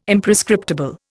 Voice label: "Imprescriptible", Indian